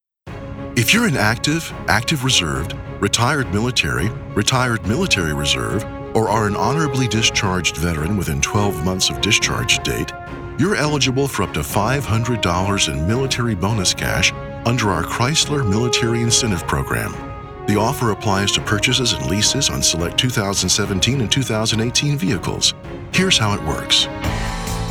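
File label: narration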